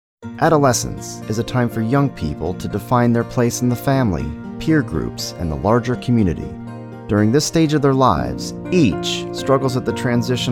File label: Narrations